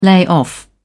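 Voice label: "layoff", British English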